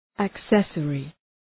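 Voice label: "accessory", English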